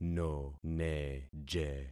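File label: "noneje", concatenated syllables; Affixing experiment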